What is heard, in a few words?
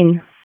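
Police Spec Ops Tac, radio; Raleigh; wake; p25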